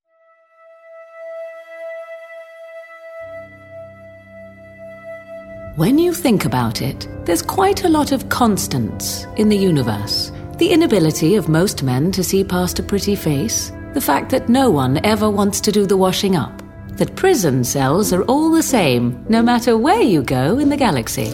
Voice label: trailer; big-finish; shell-game